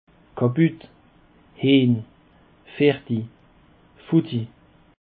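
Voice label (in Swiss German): "kaputt", Alsatian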